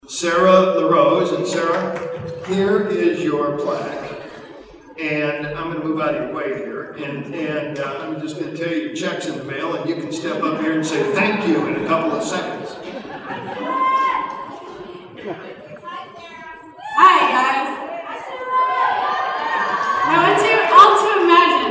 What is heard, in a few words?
acceptance speech